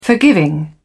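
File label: "forgiving", British English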